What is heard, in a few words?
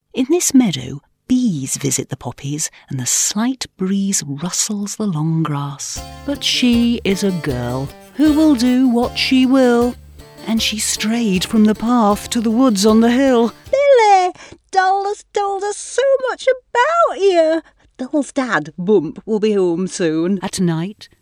Audiobook